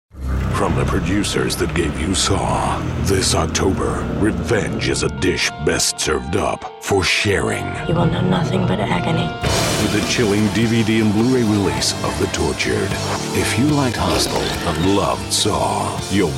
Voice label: classic movie trailer, British Movie Trailer Deep Voice